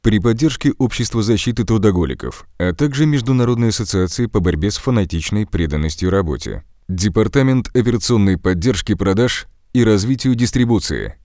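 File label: Russian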